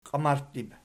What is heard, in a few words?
"qiamarttib", Archi